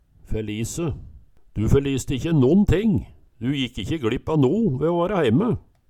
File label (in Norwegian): "ferlise", Numedalsmål